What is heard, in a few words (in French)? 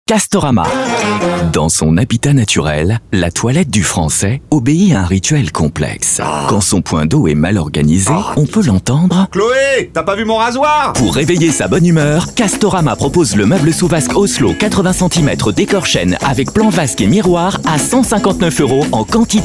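humoristique vendeur, comedien voix off homme; Castorama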